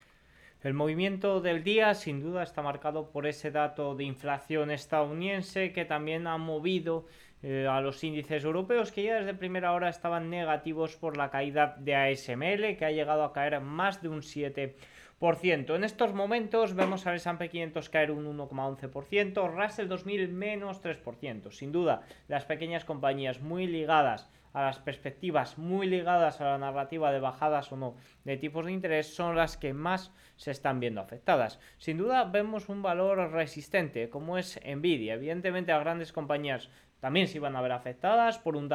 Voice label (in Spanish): radio; noticias bolsa; los indices a la baja tras el dato de ipc